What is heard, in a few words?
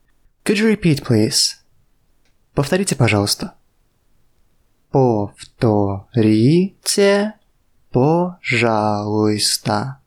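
Russian Could you repeat please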